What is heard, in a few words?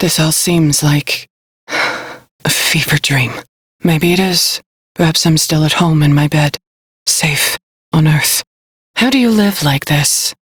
Crew Idle, Female; Warframe; Railjack; New Loka